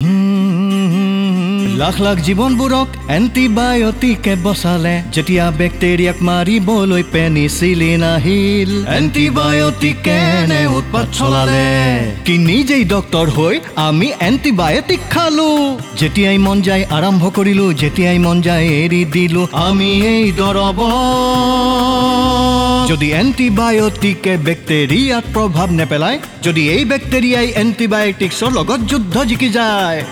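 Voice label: Antibiotic Jingle Assamese; jingles in 19 languages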